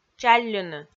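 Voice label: "чалльыны" Komi, female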